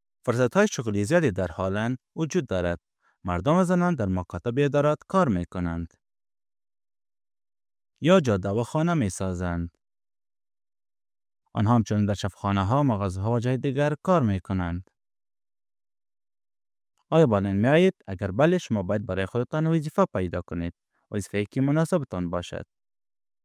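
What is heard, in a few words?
Dari male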